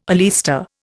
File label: "oleaster", Indian